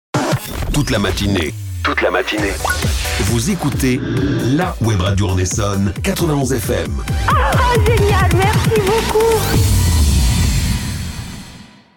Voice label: Jingle Toute la matinée; Transitions

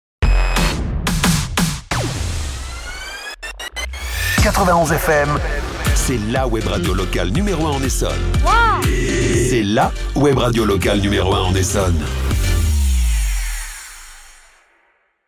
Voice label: Jingle Webradio #1 Essonne; Transitions

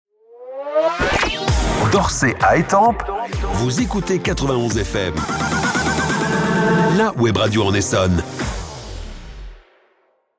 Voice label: Jingle D'Orsay à Étampes; Transitions

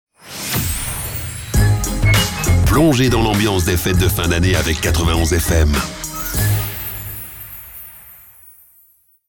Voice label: Jingle Joyeuses fêtes

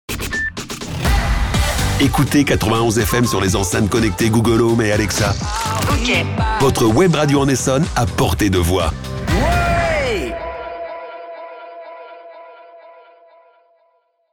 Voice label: Jingle Enceintes connectées; Transitions

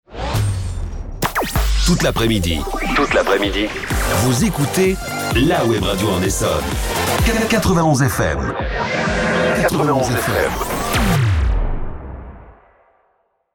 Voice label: Jingle Toute l'après-midi; Transitions

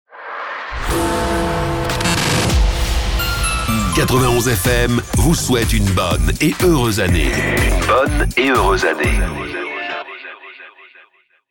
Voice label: Jingle Bonne et heureuse année

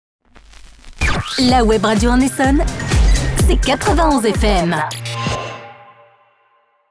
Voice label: Jingle LA webradio en Essonne; Transitions

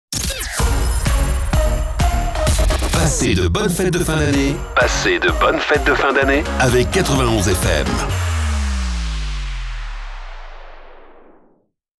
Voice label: Jingle Bonnes fetes

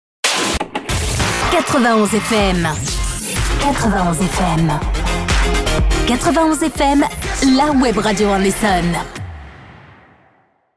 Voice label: Jingle LA webradio en Essonne; Transitions

